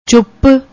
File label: "chup", Punjabi; ਚੁੱਪ